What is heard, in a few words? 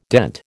"dent", American